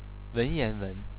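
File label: "wenyanwen", Chinese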